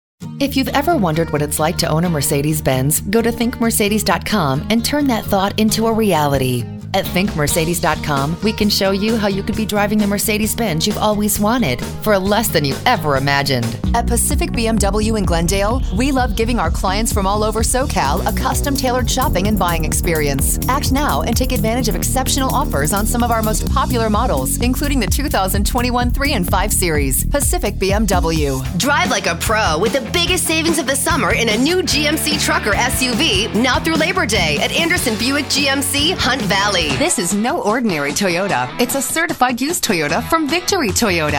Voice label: Auto VO